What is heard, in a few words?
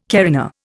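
"carina", Indian English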